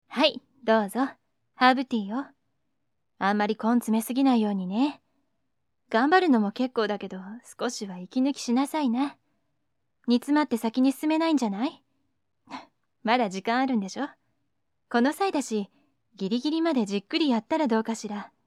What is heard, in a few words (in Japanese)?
じっくりお姉さん; いろんな励まし方。